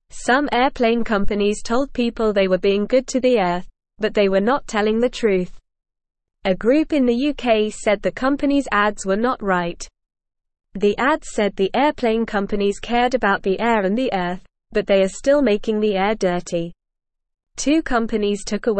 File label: English Beginner NORMAL Reading; Airplane Companies Ads About Being Good to Earth Were Not T; English Newsroom